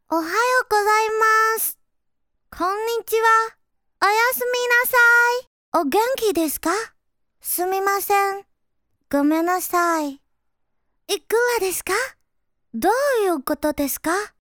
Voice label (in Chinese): 女,角色模仿,日语